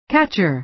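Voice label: "catcher", American English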